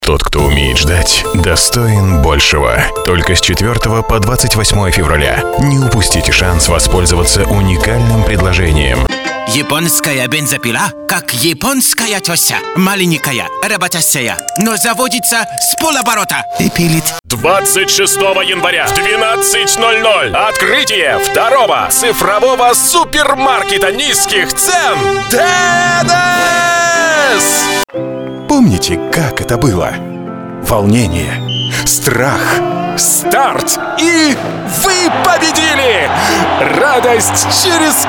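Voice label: Russian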